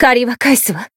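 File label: Voice healed, Japanese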